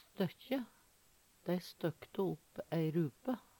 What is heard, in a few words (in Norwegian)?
stokkje, Numedalsmål; Verb